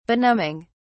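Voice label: "benumbing", English, British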